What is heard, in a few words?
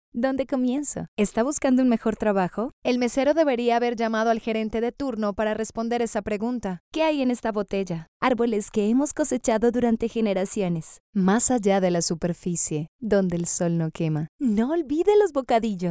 VO SPANISH LATAM